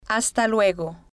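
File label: "hasta luego", Spanish, female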